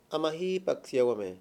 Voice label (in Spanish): "lavadero", Mayo; Mundo moderno